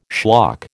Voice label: "schlock", American